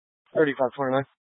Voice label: Police Department Dispatch; Grand Junction